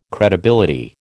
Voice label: "credibility", American